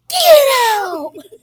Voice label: get out echo